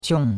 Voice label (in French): "qiong4", chinois; Cours-de-Chinois